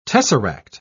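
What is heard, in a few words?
"tesseract", English, British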